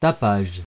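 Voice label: "tapage", French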